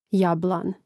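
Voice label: "jabalan", Croatian; mreznik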